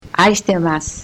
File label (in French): japonais voix femme